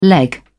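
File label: "leg", British English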